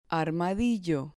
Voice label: "armadillo", Spanish

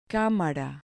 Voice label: "camara", Spanish

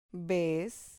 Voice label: "ves", Spanish syllable

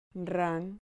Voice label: "ran", Spanish syllable

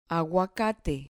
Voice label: "aguacate", Spanish

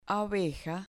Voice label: "abeja", Spanish

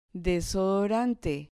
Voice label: "desodorante", Spanish

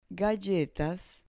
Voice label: "galletas", Spanish

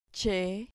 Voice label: "che", Spanish syllable

